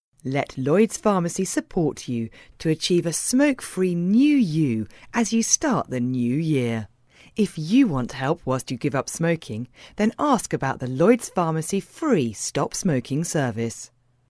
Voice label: corporate